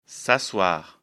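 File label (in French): "s'asseoir", French; afrikalan; lecture fr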